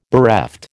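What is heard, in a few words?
"bereft", American English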